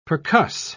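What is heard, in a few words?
"percuss", British English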